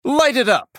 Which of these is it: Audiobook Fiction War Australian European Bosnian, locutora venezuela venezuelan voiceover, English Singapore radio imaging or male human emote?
male human emote